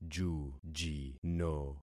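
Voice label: "jujino", concatenated syllables; Affixing experiment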